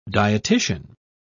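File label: "dietician", British English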